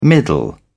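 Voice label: "middle", British English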